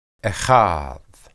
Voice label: "echad", Hebrew

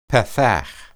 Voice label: "pathah", Hebrew

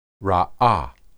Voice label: "raah", Hebrew

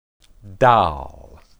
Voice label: "dal", Hebrew

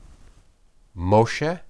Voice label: "moshe", Hebrew

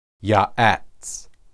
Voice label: "yaats", Hebrew

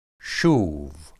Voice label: "shub", Hebrew

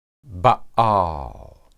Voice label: baal, hebrew

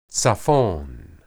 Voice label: "tsiphon", Hebrew

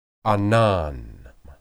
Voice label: "anan", Hebrew

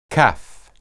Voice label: "caph", Hebrew

